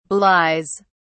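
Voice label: "belies", British English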